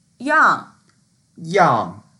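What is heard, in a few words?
"yang4", Mandarin Chinese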